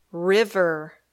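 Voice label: "river", English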